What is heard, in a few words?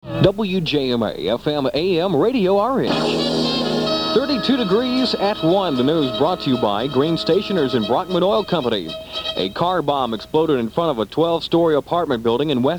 newscast; WJMA